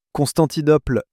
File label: "constantinople", French